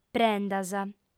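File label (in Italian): "prendas", lingua sarda, fem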